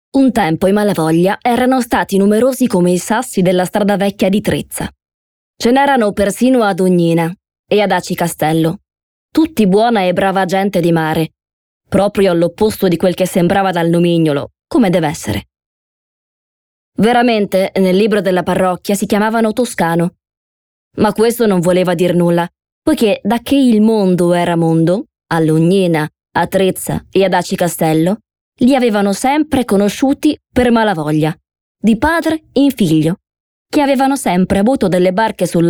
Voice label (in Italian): Descrittivo